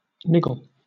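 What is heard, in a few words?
"niggle", British English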